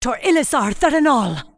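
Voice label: warcry, English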